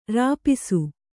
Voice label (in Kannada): "ರಿಪೀಸು", Kannada